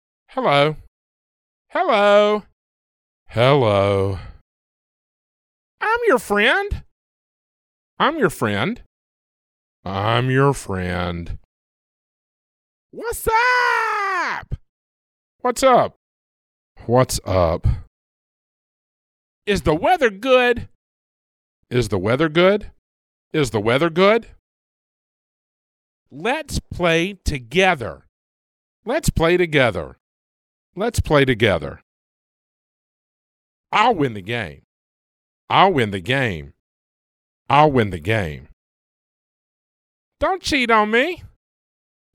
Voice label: en us man